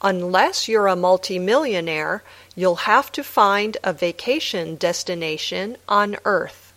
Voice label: Dictation